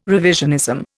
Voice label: "revisionism", Indian